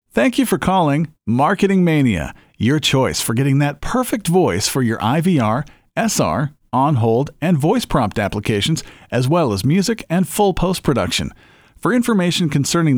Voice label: Voice Sample, onhold